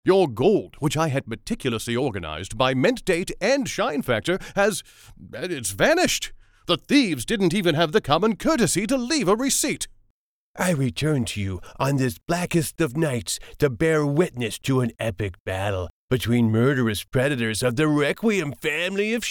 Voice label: Characters, english